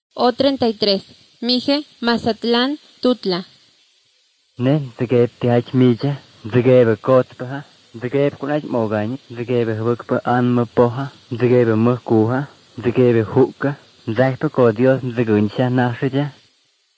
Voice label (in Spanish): spanish mexico